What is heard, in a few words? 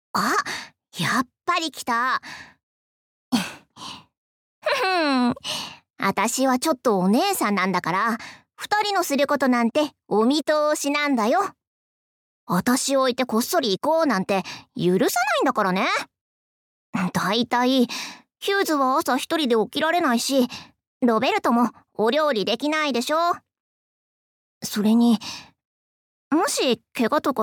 Voiceover